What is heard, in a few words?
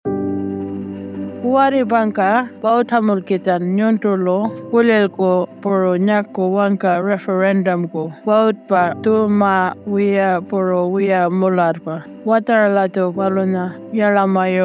Ngaanytjarra, Radio mix; indigenous; AEC Misinfo